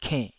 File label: "kennh3", taiwanese syllables